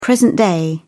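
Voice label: "present day", British English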